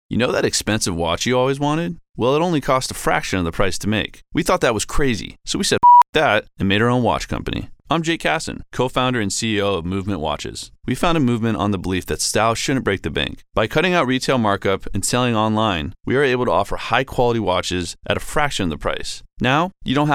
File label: CENSOR BEEP; MVMT